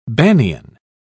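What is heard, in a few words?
"banian", British English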